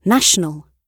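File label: "national", British English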